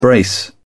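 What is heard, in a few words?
"brace", English, British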